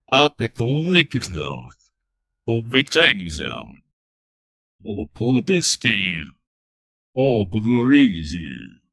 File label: airplane captain speaking